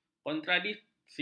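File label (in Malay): "kontradiksi", Malay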